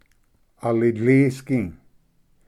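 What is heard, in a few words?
"alitlisgi", Cherokee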